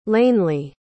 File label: "lanely", pronounce